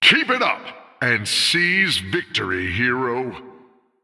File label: Male Human First Place